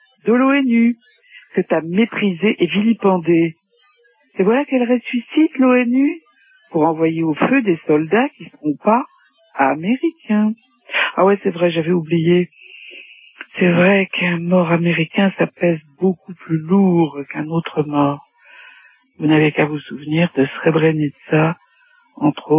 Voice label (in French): Irak repondeur